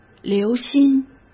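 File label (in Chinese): "留心", Mandarin; chinese-flashcards; hsk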